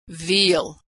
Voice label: "veal", pronunciation